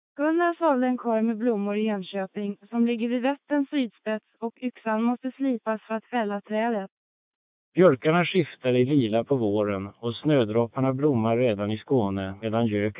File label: swe short; AMBE 2400